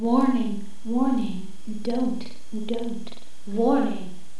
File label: DONT, FEMALE